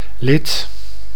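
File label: "Lith", Dutch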